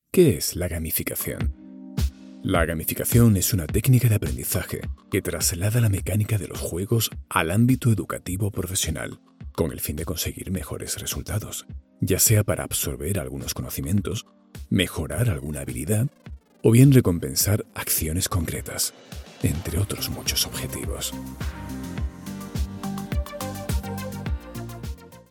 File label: spanish e-learning